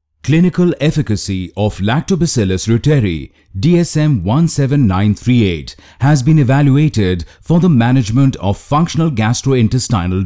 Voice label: eLearning Medical